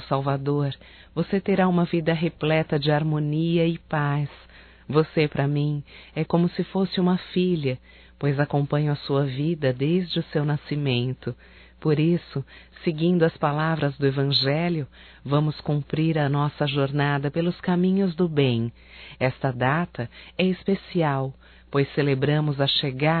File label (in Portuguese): NATAL ANO NOVO SOBRINHA (F); Telemensagens 2017